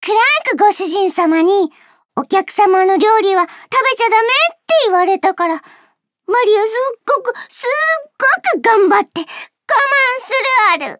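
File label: info, Japanese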